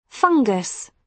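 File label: "fungus", British English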